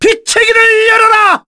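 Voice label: Vox Skill, Korean; Kasel; kingsraid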